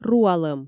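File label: "руалӧм", Komi, female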